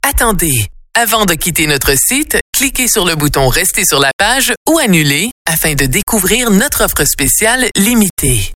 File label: IVR French Dry